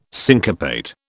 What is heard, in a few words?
"syncopate", British